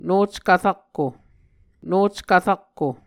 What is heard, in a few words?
"nockv rakko", Muscogee; Mvskoke Words with Repeated Consonants; Onvkv Ohhvyayices